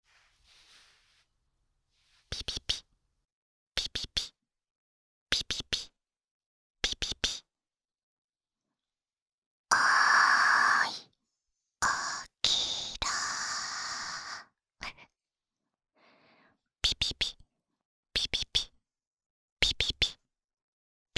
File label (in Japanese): くちゅ無し版 メスガキによる目覚まし音声; キノコくちゅ無し版